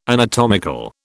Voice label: "anatomical", British

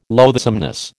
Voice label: "loathsomeness", American

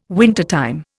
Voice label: "wintertime", Indian

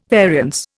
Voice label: "variance", Indian

